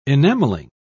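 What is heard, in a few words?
"enameling", British English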